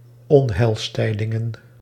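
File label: "onheilstijdingen", Dutch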